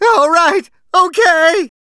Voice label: Ok weak, Male; gangsters

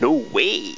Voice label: male no; Foundation

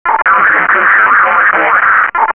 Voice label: Chatter

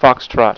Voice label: alfa F

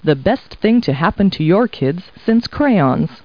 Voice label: people, kid

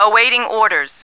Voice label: awaiting orders; Empire Earth

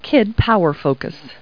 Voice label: people, kid

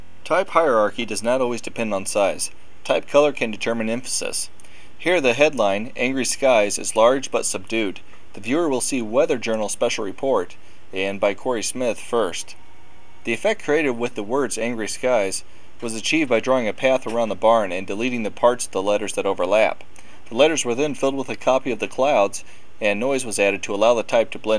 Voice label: angry

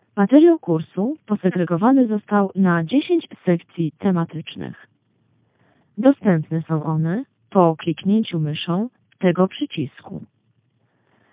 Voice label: MPEG-4 speech; Analiza i kompresja mowy

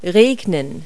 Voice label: "rain", verb; Multi-Lingual Talking Picture Dictionary

